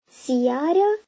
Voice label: Arabic CAR; playground